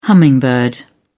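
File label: "hummingbird", English, British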